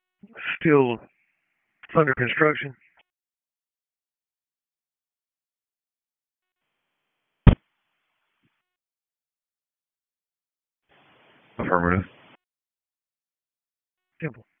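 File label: FIRE Operations, p25; FIRE OPS 1; wake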